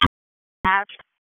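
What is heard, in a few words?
Dispatch, p25; WSO DISP 1; wake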